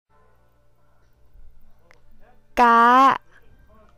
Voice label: Tone 4 is high tone female